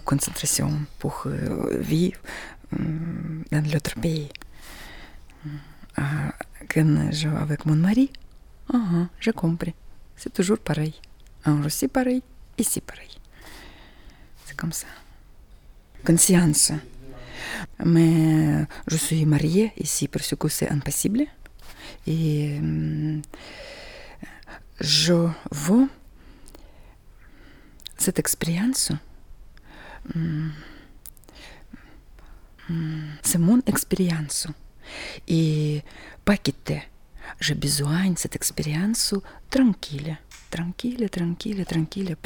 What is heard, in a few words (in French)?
homme femme